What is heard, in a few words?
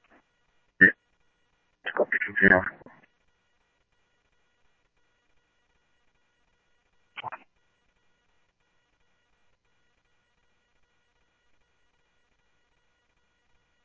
Police, scanner audio trunk; H-Scottsdale